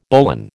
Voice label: "bowline", American